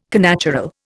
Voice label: "connatural", Indian